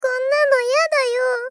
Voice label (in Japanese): Moe Despair Konnano yadayo